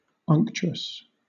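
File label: "unctuous", British English; pronunciations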